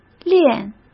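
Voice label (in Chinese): "练", Mandarin; chinese-flashcards; hsk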